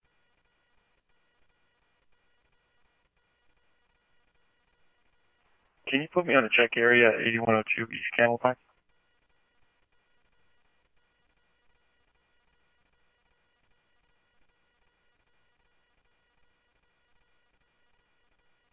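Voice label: Police radio; ScottsdalePolice; H-Scottsdale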